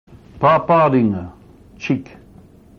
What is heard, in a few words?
"paparinga", Maori